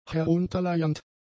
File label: "herunterleiernd", German; adjectives